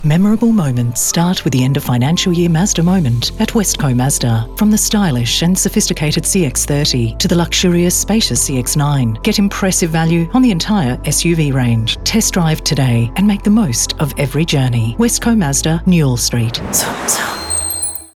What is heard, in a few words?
english australian automotive